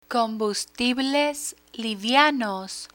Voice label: "combustibles livianos", Spanish; firefighter vocabulary; learn spanish

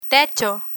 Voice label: "techo", Spanish; construction tools

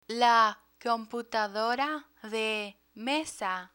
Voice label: "computadora de mesa", Spanish; spanish for kids; learn spanish

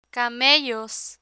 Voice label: "camellos", Spanish

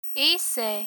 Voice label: "hice", Spanish

